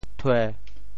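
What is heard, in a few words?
"thue1", Teochew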